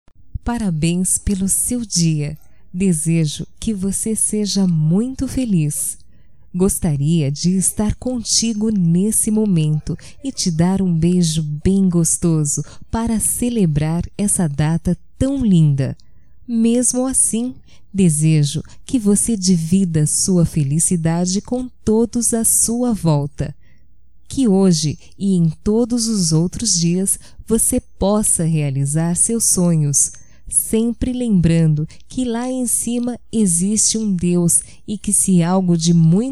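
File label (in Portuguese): ANIVERSÁRIO NEUTRO, female, Telemensagens, Só Voz; Telemensagens 2017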